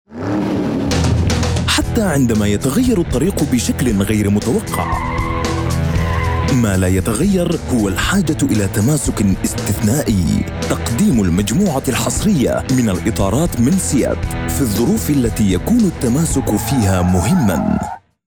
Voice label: Corporate Commercial Standard Arabic